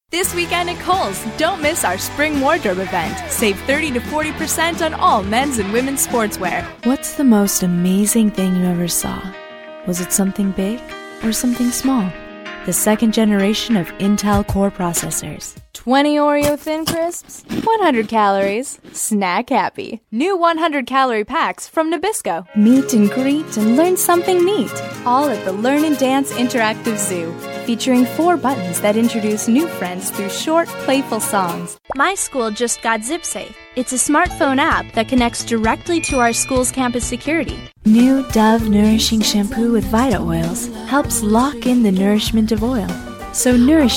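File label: english US female commercial; voice demos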